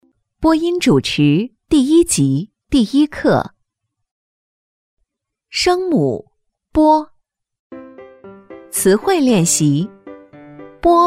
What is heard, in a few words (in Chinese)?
多媒体 培训课件 播音主持示范朗读